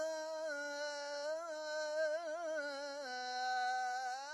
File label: Dari